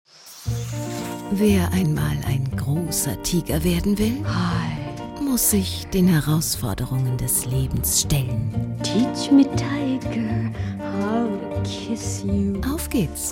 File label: trailer; LOFT VOICES